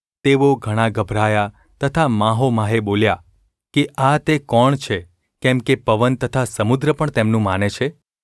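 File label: gu ulb mrk, Gujarati; mrk 4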